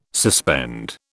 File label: "suspend", British